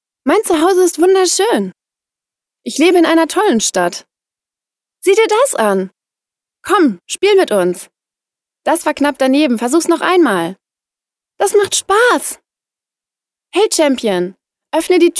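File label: German female children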